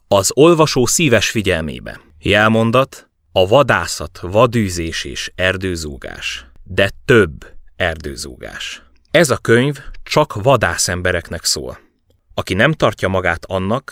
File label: Hungarian, Male